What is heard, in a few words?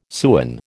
"sewin", American